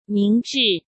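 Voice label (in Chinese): "明智", Mandarin Chinese; chinese-flashcards; hsk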